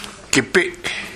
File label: "geppe", minamiyamagata